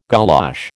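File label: "golosh", American English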